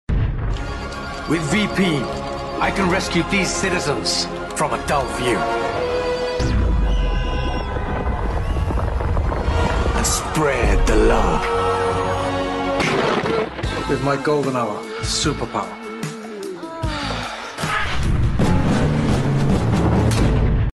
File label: male English West Midlands accent commercial; superheroes vp